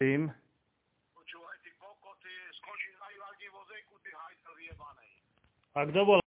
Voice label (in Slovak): zaznam telefonatu